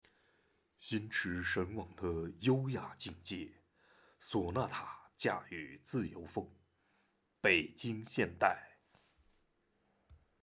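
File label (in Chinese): 商业广告汽车; 北京现代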